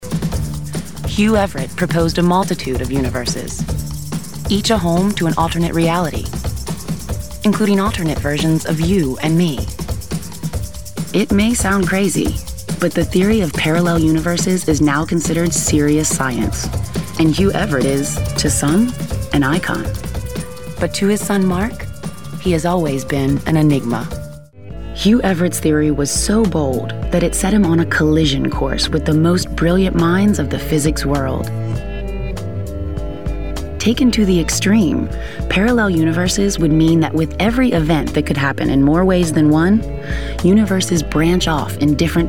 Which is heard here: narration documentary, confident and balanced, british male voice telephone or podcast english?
narration documentary